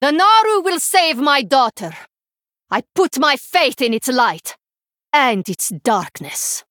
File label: Female Draenei; Shadow Priest Xyrella